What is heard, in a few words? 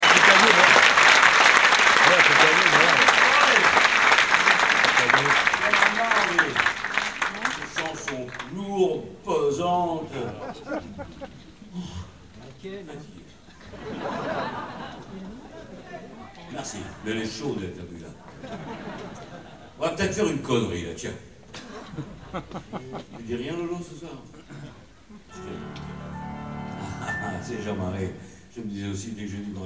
Monologue; 2013 Olympia